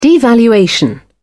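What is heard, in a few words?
"devaluation", British English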